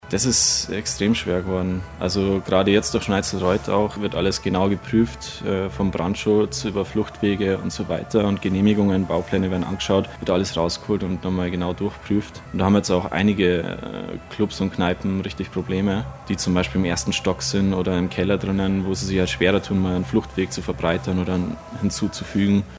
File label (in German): nachrichten; baamhakke